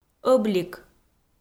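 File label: "ӧблик", Komi, female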